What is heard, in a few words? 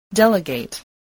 "delegate", English